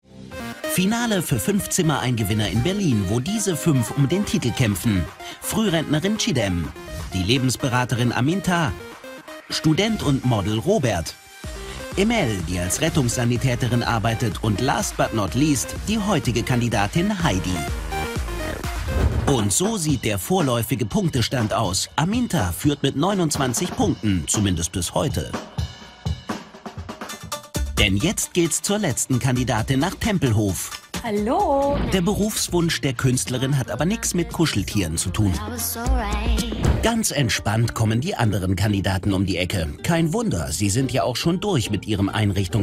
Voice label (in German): dokusoap off; fuenf zimmer ein gewinner